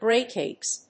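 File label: "great apes", English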